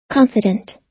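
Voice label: "confident", American English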